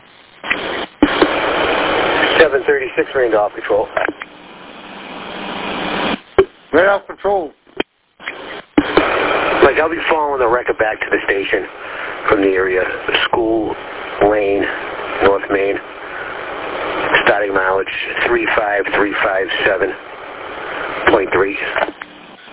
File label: Police Radio